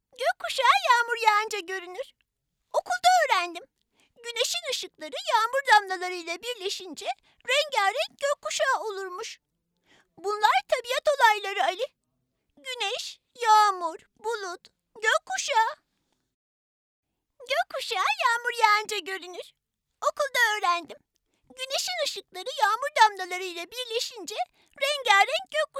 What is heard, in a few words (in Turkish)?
KIZ COCUK